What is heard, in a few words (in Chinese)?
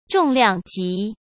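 "重量级", Mandarin Chinese; hsk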